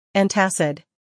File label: Antacid, drugs dictionary; calcium carbonate